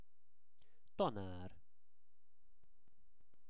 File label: "tanar", Hungarian; szavak es kifejezesek